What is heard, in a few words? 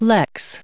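"lex", US English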